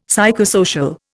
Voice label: "psychosocial", Indian; Dictionary

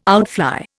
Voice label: "outfly", Indian

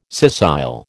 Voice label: "scissile", American

